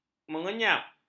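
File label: "menyengap", Malay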